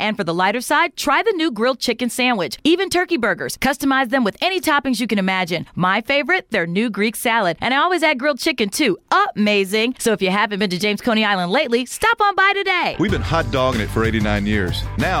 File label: radio spot new menu